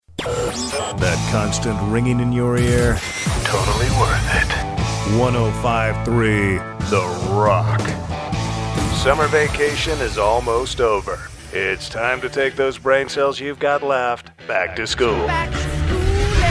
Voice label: ROCK IMAGING MIX